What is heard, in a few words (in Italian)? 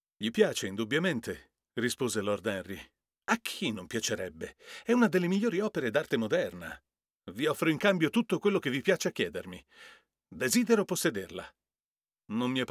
SC1000 per Confronto U87AI